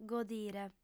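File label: "godire", female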